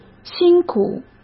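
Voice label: "辛苦", Mandarin Chinese; hsk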